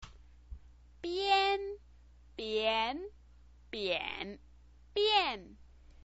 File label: "bian", chinese pinyin